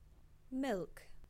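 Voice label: English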